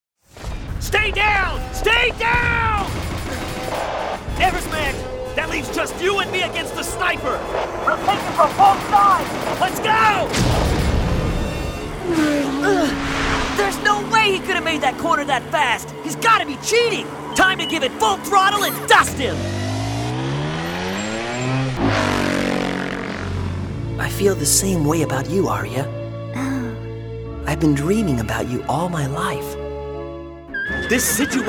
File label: men other superheros